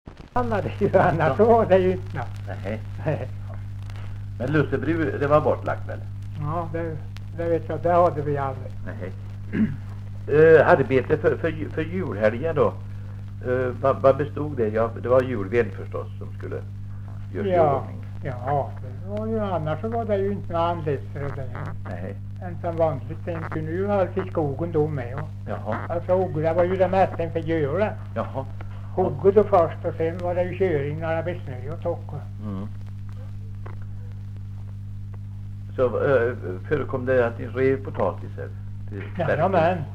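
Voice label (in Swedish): Visnums-Kil Värmland, dialekter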